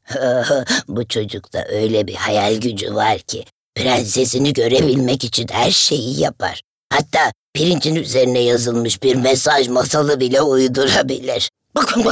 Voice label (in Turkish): ANIMASYON